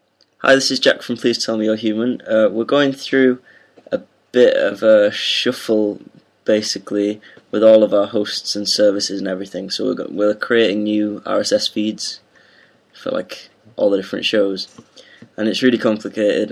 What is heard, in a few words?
announcement; jellycast; podcast